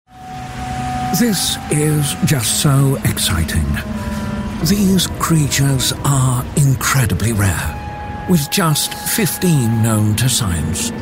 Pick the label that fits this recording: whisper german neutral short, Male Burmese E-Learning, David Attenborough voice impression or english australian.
David Attenborough voice impression